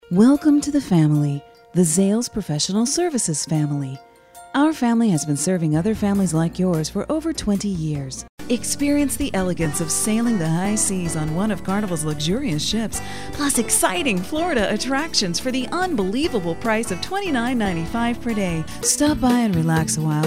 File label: Commercial